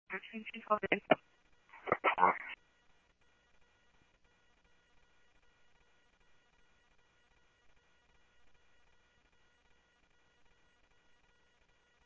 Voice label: Police, scanner

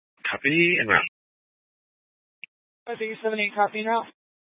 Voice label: Dispatch, scanner

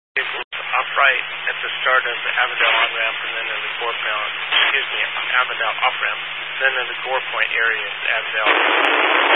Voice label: Highway Patrol, Phoenix Metro West Area, scanner

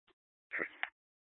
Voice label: Dispatch, scanner; Paradise Valley PD; H- Scottsdale

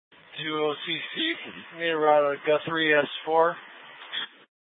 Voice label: Light Rail Ops, scanner; Valley Metro Public Transit System; Regional Wireless Cooperative (RWC) - Simulcast F- Tempe; Light Rail Ops 1

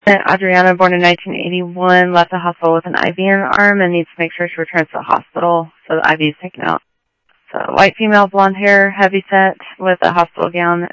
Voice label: Phoenix PD, scanner audio; ThompsonPeakHigh

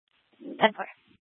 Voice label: Mesa PD Fiesta Dispatch; 1 Fiesta Dispatch

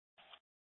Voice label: Fire Departments Mesa Simulcast; TOPAZ

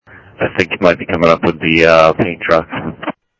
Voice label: scanner audio, ADOT Landscp; ArizonaDepofTran

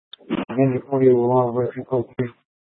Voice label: Phx PD Fire Dispatch; RWC

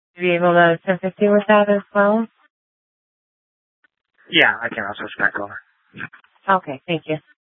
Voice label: Sheriff, scanner P25

